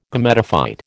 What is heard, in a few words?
"Gametophyte", American